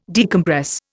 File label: "decompress", Indian